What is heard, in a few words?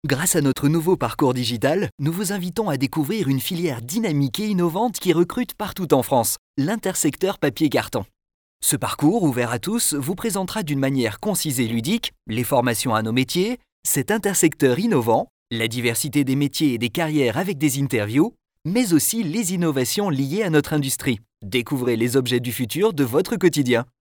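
French male commercial dynamic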